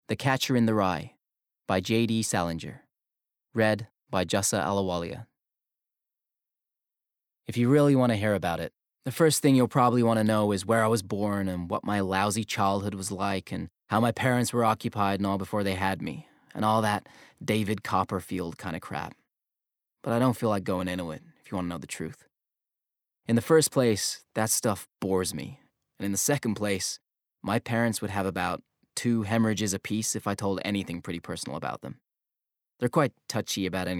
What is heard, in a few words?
Bookread; Catcher In The Rye